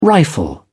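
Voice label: "rifle", British English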